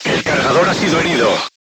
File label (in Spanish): voice message charger wounded, spanish